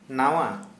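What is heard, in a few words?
Santali, male, new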